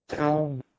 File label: "draugm", Proto-Germanic